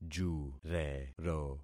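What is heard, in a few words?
"jurero", concatenated syllables; Affixing experiment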